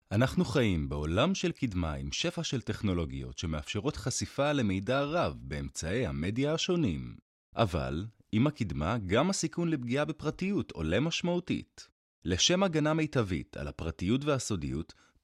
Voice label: İbranice Erkek Ses; İbranice Seslendirme

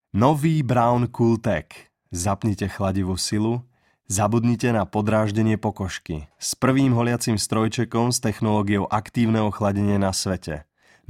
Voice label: Slovakca Erkek, seslendirme; erkek sesler

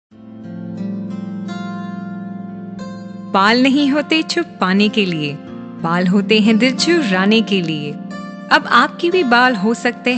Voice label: Hintce Kadin; seslendirme

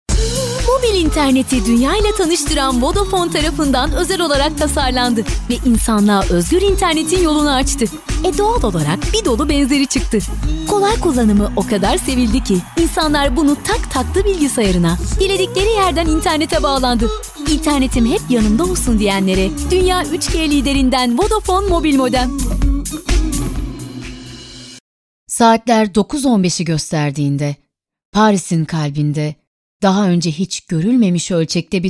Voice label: kadin sesler, turkce seslendirme

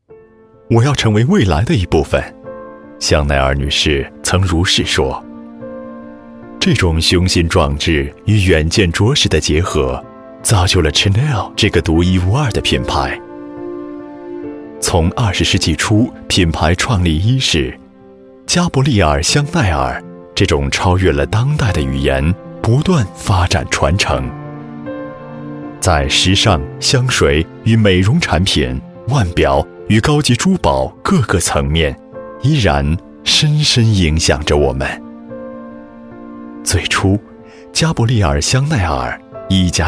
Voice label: Japonca Erkek; seslendirme

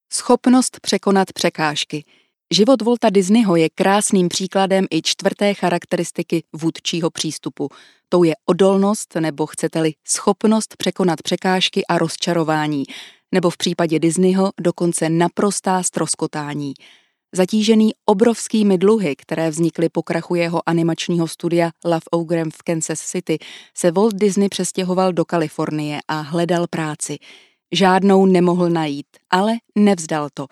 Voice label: Cekce Kadin, seslendirme; Kadın Ses

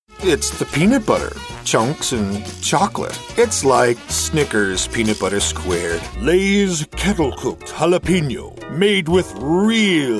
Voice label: Amerikan Ingilizcesi Erkek

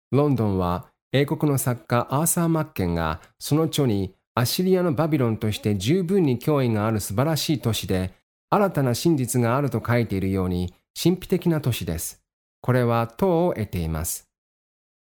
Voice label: Japonca Erkek, seslendirme

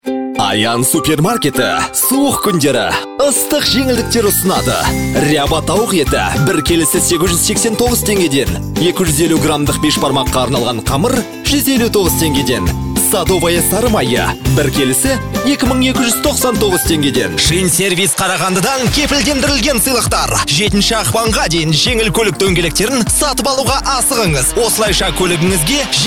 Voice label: Kazakca Erkek

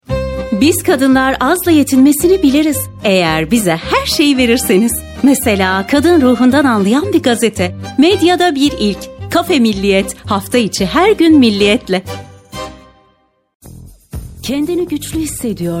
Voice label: turkce seslendirme, kadin sesler

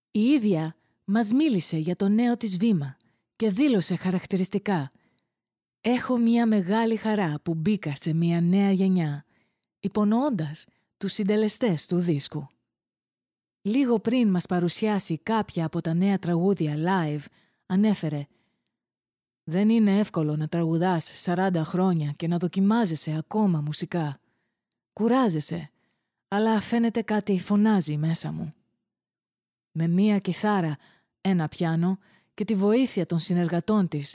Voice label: Yunanca Kadin, yunanca seslendirme; kadin sesler

